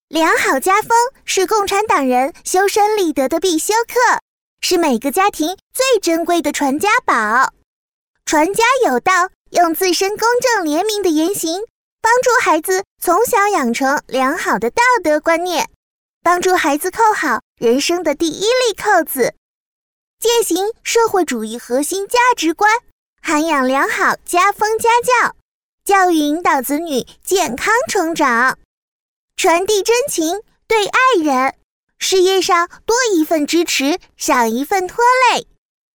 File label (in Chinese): 女童; 传家宝